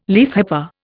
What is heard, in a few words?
"leafhopper", Indian